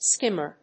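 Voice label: "skimmer", English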